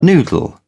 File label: "noodle", en uk